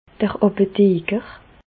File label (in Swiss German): "apotheker", Bas Rhin; pharmacien; medecine